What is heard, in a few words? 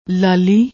"laali", Punjabi